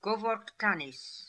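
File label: "Govorktanis", pronunciations; Fourth Way; Beelzebub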